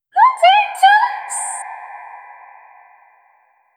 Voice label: gentle female whisper; retro game

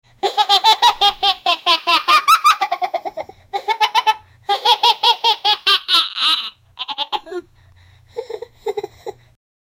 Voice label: 9 year old african, family

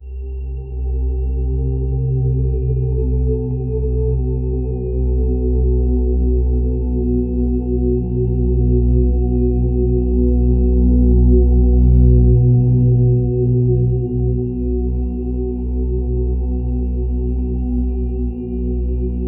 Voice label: warm low frequency male v, mood

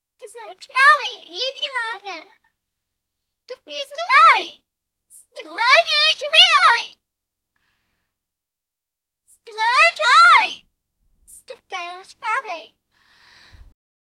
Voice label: little girl saying you; character voices

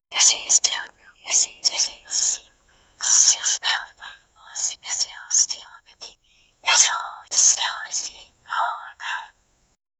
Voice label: female whisper illegible; ancient language

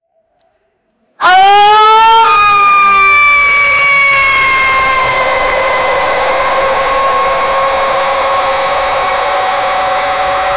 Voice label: an old english cockpit vo; alarms

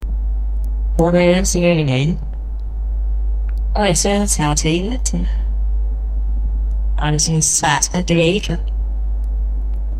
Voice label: energetic human male voice, voice over

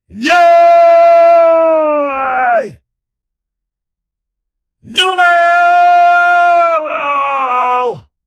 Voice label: man yelling guilty, courtroom

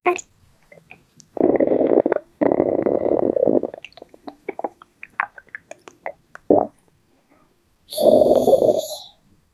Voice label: a 9 year old girl

